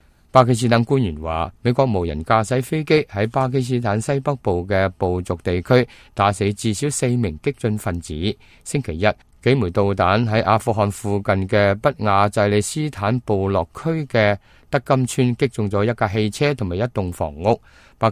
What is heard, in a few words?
cantonese, news